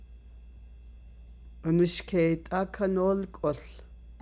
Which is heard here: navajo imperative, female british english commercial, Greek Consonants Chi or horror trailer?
navajo imperative